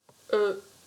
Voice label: "e", french